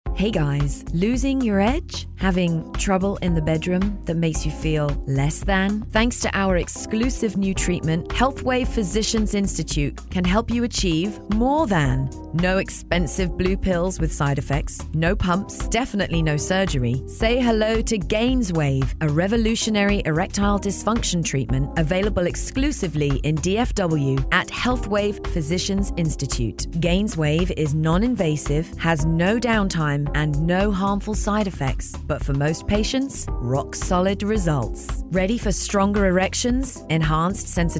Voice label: Radio Ad; Gainswave